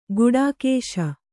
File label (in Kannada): "ಘಟಾಕಾಶ", kannada